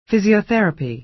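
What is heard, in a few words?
"physiotherapy", American English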